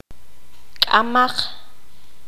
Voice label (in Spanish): "no futuro", Q'anjob'al